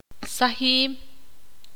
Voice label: ixil; mirar